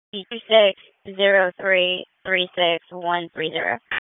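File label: Police Southeast District Dispatch; Raleigh; wake; p25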